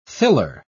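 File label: "thiller", British English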